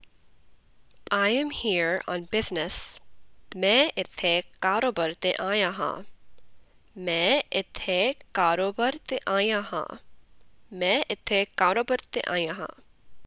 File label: Punjabi I am here on business